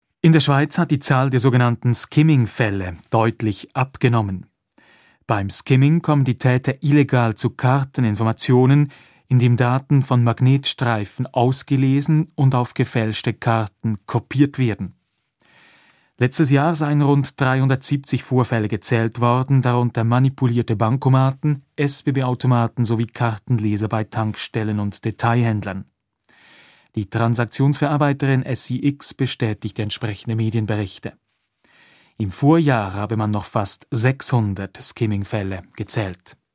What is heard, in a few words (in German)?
Nachrichten; Skimming; SRF1